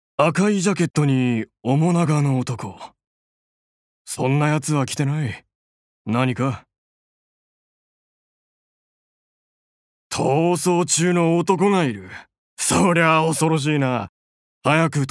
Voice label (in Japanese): 外画